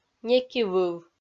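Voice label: "некивыв", Komi, female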